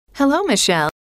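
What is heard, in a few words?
hello, female; trainer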